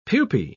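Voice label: "pupae", British English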